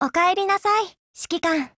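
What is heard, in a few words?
HELLO Japanese, Voice; GF Alarm; FAMAS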